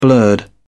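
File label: "blurred", British English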